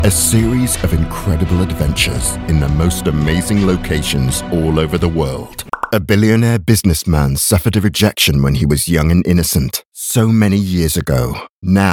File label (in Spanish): ingles